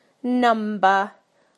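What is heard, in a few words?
"number", British English; PictureDictionary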